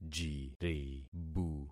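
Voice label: "jiribu", concatenated syllables; Affixing experiment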